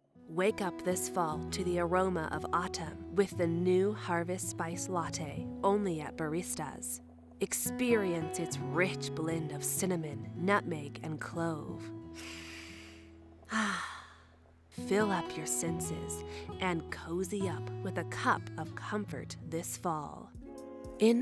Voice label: reel commercial; Commercial Demo Reel